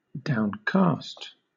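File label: "downcast", British English; pronunciations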